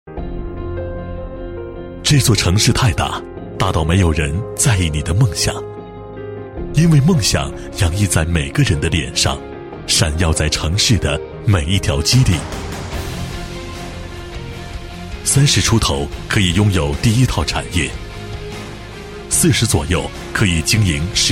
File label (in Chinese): 男60号 高端品牌; 梧桐国际; 交广主播